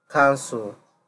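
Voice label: "cancel", Nigerian Pidgin, male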